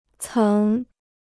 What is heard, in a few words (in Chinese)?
"曾", Chinese